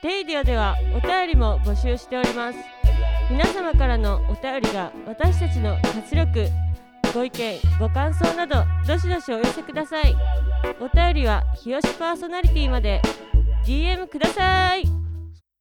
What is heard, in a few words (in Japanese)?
レイディオ CM